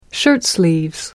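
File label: "shirtsleeves", British English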